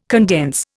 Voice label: "condense", Indian

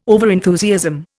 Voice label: "overenthusiasm", Indian

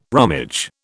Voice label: "rummage", British

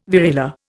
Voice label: "variola", Indian

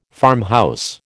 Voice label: "farmhouse", American

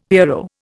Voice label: "pierrot", Indian; Dictionary

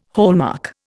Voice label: "hallmark", Indian

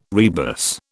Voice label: "rebus", British

